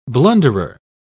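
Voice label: "blunderer", British English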